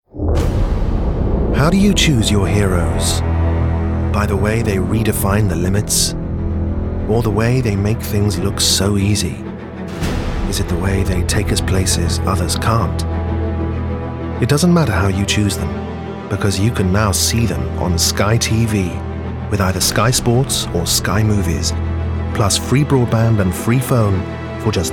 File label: Voice Commercial, voiceover